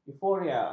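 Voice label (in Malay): "euforia", Malay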